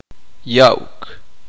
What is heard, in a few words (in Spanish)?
uspanteko dar